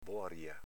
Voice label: "boaria", Veneto

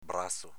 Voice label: "brazo", Veneto

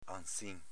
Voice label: "anzin", Veneto

